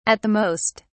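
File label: "at the most", British English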